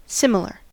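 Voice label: "similar", US English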